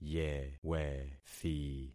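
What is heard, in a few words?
"yewethi", concatenated syllables; Affixing experiment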